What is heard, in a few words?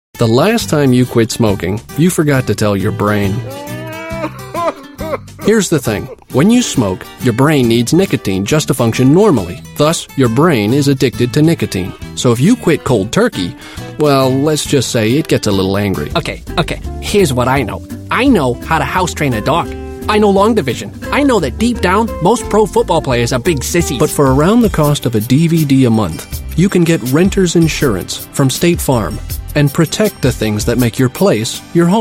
English US